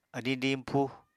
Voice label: "adiñ diñpúu", apatani